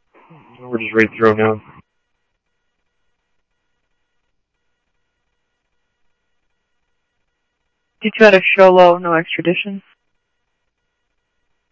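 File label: police department, scanner audio; ThompsonPeakHigh; PHOENIX PD-200 A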